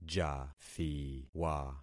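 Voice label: "jathiwa", concatenated syllables; Affixing experiment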